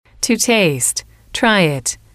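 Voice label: to taste try it, English, female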